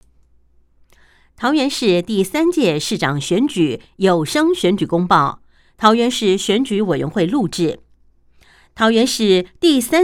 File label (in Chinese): 前言, 國語; 有聲公報; 市長